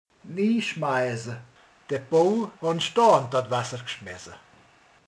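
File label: "bedob", Hungarian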